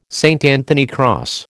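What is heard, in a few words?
"St Anthony cross", American English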